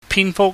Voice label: "pinfuk", Cantonese